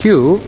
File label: "q", male British